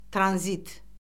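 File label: "tranzit", Azerbaijani; Orfoqrafiya Lüğəti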